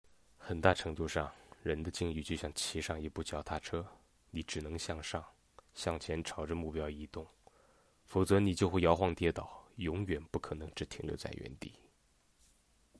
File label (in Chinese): 配音